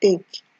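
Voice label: "take", Nigerian Pidgin, female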